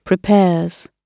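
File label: "prepares", British English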